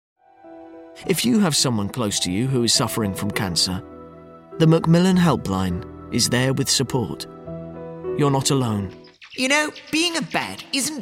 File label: Commercial